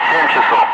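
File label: Russian speech, Ka-50 Wingman; DCS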